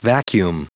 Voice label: "vacuum", pronounce